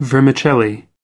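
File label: "vermicelli", US English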